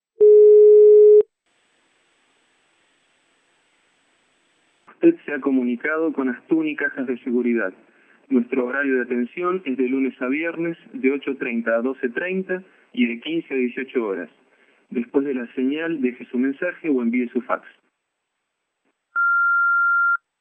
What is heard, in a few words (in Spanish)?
mensajes telefonicos